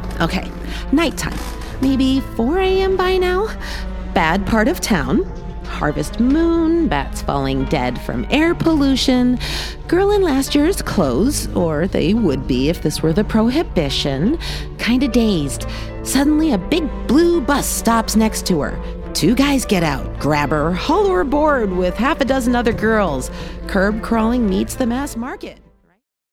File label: audio drama Wry fearless quick thinking American